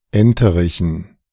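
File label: "Enterichen", German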